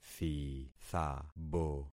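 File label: "thithabo", concatenated syllables; Affixing experiment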